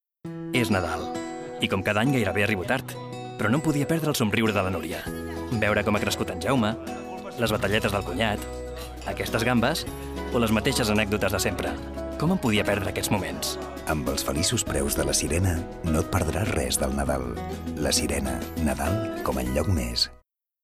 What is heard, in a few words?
male catalan comercial; ideasonora